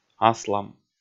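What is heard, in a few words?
"аслам", Komi, male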